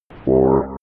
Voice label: robot voice four fx

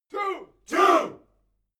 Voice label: military shout english two

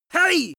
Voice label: angry warning hey male

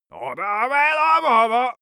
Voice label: male gibberish complaining; koerpergeraeusche

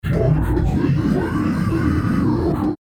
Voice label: creature dark speech

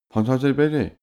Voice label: male talk neutral

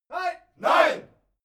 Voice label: military shout german "no"

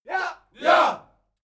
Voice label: military shout german yes

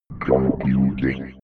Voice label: robot voice computing fx

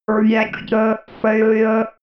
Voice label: robot voice reactor failure

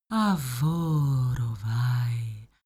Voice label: female talk elfish

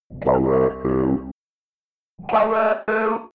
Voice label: robot voice power low fx

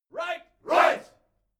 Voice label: military shout english "right"

